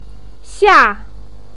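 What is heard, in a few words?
"xia", Mandarin pinyin, fourth tone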